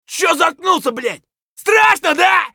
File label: scav battlecry; eft